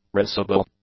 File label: "risible", English; adjectives